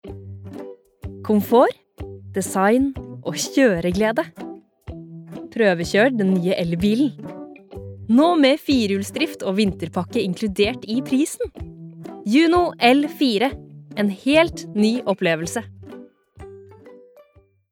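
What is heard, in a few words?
Norwegian advert, norwegian female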